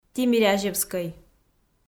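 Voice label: "тимирязевскӧй", Komi, female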